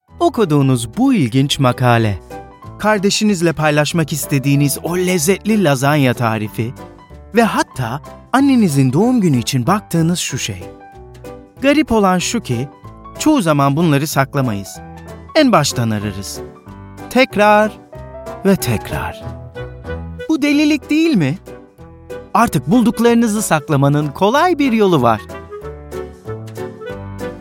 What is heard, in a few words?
turkish male natural